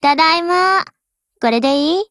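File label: OPERATION OVER, Japanese, Voice; GF Alarm; M249SAW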